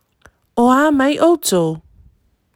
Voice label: How are you (three or more people) O a mai outou, Samoa; 2022 Pacific Language Weeks